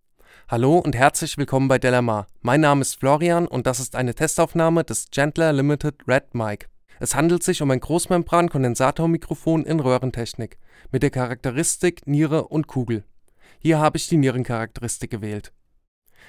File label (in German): sprache m; chandler limited redd microphone test